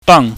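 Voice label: "bang", Cantonese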